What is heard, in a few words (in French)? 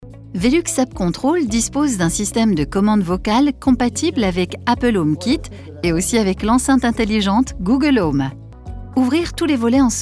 elearning mode emploi voix off; velux